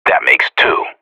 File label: radio bot kill count; Source Model Packs; Counter Strike Model Pack; CS2; professional